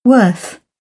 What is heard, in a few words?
"worth", British English; ɝː - ɜː